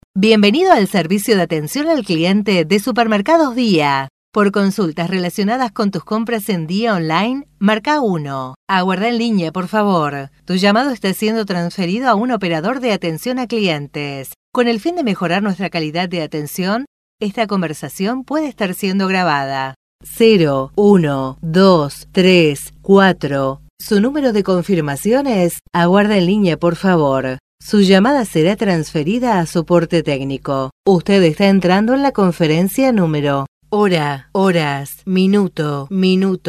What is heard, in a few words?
ivr